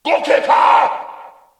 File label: orc